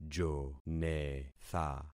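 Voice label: "jonetha", concatenated syllables; Affixing experiment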